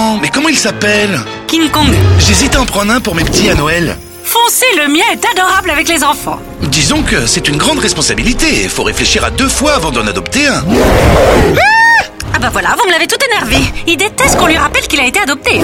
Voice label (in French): spot fun radio grosse emission; King Kong